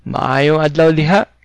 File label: good day, cebuano